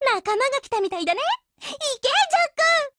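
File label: BUILD OVER Japanese, Voice; GF Alarm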